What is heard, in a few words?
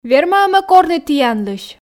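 верма-ӧ ме корны тіянлысь, Komi, female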